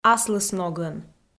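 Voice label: "аслысногӧн", Komi, female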